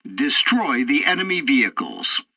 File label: tutorial tank arcade long range destroy targets, english; War Thunder